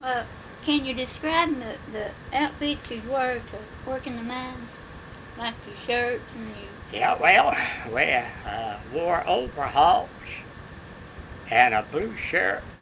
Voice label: Oral history with male and female Pine Ridge Kentucky, american english dialect